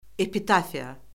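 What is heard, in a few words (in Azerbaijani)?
"epitafiya", Azerbaijani; Orfoqrafiya Lüğəti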